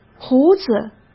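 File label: "胡子", Mandarin Chinese; hsk; chinese flashcards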